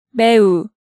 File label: "Maeu", Korean